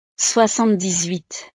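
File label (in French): "soixante dix huit", French; 78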